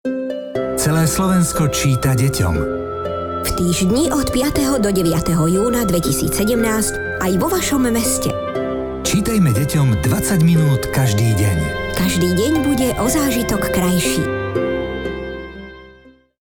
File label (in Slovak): rozhlas